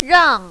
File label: "rang4", mandarin, female